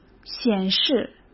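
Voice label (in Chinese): "显示", Mandarin Chinese; hsk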